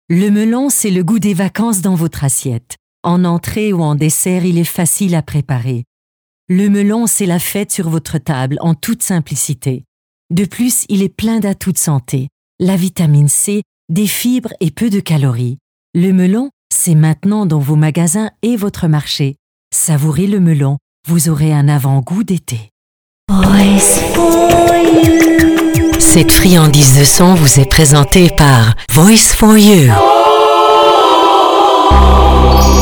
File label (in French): Promotion frisch Francais